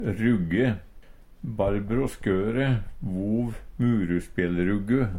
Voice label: rugge, Numedalsmål; Handverk (metall, tre, lær)

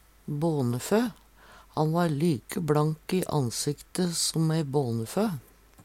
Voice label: "bånefø", Numedalsmål; Han va like blank i ansikte som båneføe.

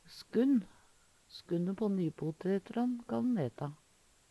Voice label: "skunn", Numedalsmål; Substantiv inkjekjønn; Planteriket; Hushald, mat, drikke

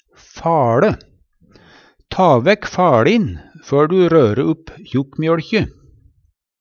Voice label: faLe, Numedalsmål; Ta vekk faLin før du røre upp kjellarmjøLkji.; Substantiv hankjønn; Hushald, mat, drikke

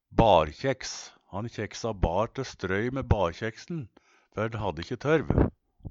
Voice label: "barkjeks", Numedalsmål; Reiskap til å skjera bar med. Bruka til strøy der dei ikkje hadde torvmyrer.

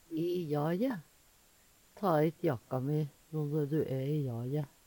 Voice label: "i jaje", Numedalsmål; Ta hit jakka mi, no når du æ i jaje!

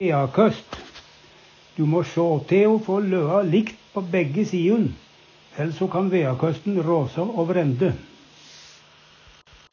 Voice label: "veakost", Numedalsmål; Uvdal

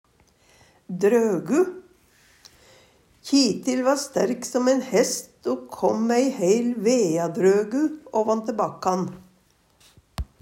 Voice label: "drøgu", Numedalsmål

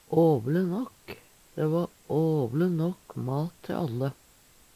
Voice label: "åvle nåkk", Numedalsmål; Dæ åvle nåkk mat te alle.

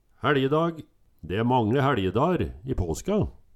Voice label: haeLjedag, Numedalsmål

